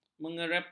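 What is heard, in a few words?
"mengerepot", Malay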